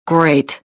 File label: anger; XBOX